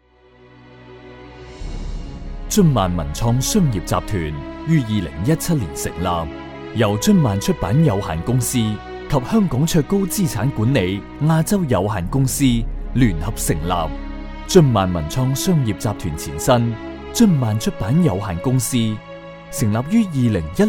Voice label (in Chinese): 男 专题, 粤男